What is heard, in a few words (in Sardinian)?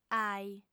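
"aih", lingua sarda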